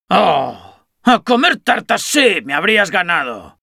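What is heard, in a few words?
Spanish; Spy; domination heavy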